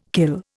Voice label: "kill", Indian